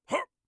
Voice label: hup, orc voice; Orc Voice Pack